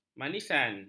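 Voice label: "manisan", Malay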